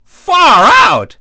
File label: dj great; DJ VOICE; Nintendo; July 2020 Gigaleak